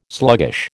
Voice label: "sluggish", American